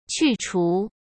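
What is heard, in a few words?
"去除", Mandarin Chinese; hsk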